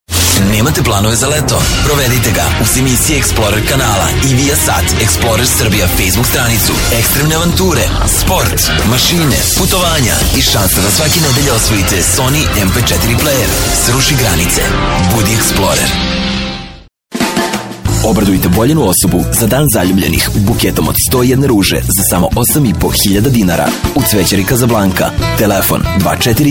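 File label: serbian male commercial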